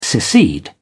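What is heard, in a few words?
"secede", British English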